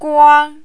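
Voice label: "guang", first tone, Mandarin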